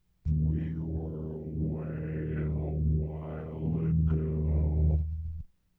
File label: xsynth speech mod plane